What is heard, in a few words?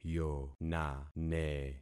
"yonane", concat syllables; Affixing experiment